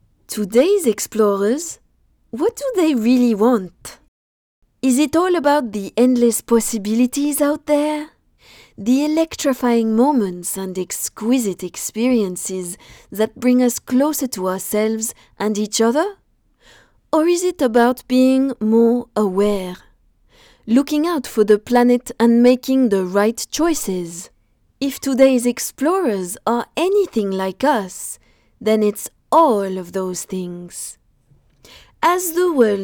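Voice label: French Accent Strong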